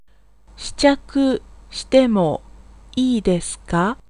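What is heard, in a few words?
Japanese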